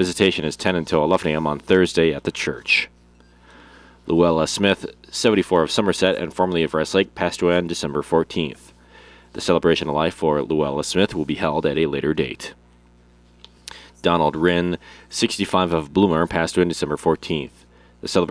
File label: Funeral Announcements